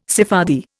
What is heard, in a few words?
"Sephardi", Indian